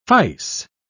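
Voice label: "fice", English, British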